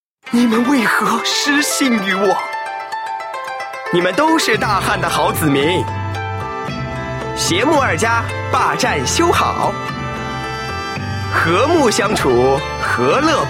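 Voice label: male teenage boy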